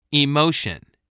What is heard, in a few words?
"emotion", spelling